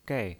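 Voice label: "gei", Mandarin Chinese, fourth tone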